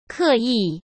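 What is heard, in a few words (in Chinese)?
"刻意", Mandarin Chinese; hsk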